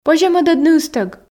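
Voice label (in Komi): Komi, позьӧ мӧдӧдны ыстӧг, female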